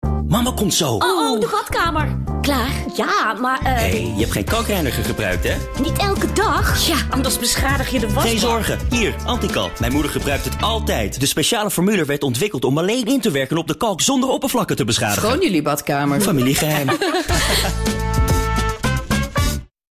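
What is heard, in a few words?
Dutch, male, character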